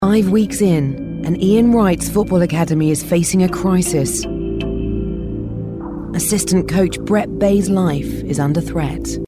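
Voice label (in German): British English, Geheimnisvoll; Fremdtake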